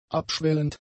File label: "abschwellend", German; adjectives